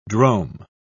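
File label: "drome", British English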